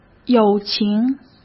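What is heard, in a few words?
"友情", Mandarin Chinese; hsk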